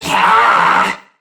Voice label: Threatened Crewmen; Dialog; Barks; Battle; Warframe; CorpusCrewmen; CrewmanD